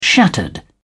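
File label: "shattered", British English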